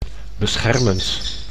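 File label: "beschermend", Dutch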